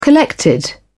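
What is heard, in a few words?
"collected", British English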